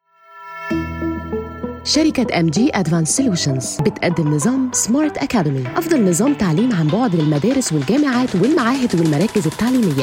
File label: Arabic